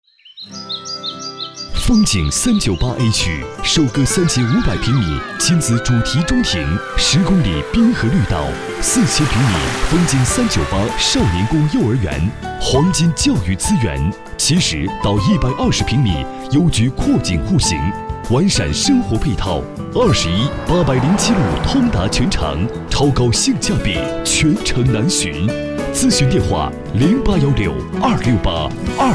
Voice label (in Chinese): 广告 地产 房地产广告 稳重, 男国